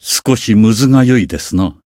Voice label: growth, jp